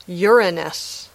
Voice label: "Uranus", English